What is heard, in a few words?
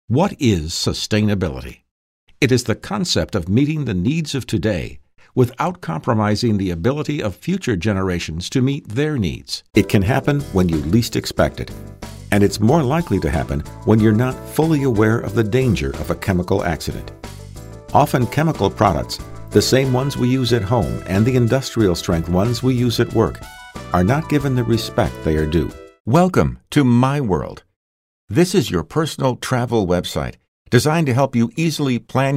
Narration